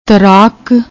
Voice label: "taraak", Punjabi; ਤਰਾਕ